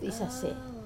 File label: "sase", pant, wamesa